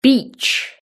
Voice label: "beach", British English

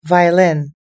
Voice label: "violin", us-english

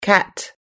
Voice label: "cat", us-english

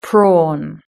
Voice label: "prawn", british english

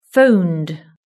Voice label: "phoned", British English

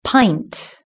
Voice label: "pint", British English

